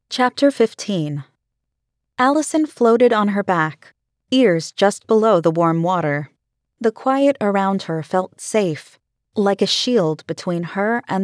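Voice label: female female 3rd person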